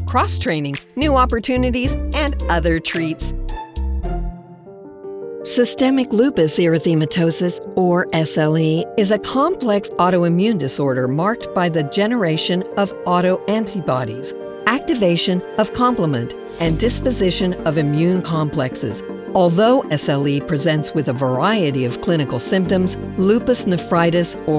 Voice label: E LEARNING